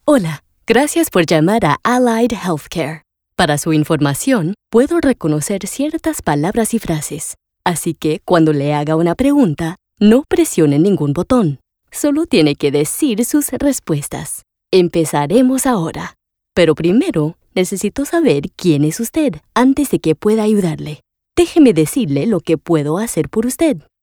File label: Spanish IVR